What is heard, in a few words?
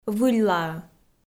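"выльлаӧ", Komi, female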